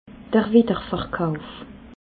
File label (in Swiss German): "widderverkauf", alsace